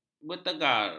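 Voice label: "bertagar", Malay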